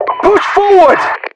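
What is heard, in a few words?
British keep fighting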